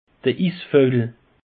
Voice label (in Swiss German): "isvojel", alsace; peche